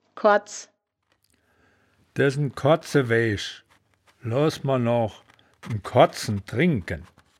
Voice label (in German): "koads", wittlich